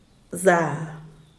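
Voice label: "za", Zapotec, Díaz Ordaz; grasa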